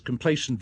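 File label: cMplacent, Standard Southern British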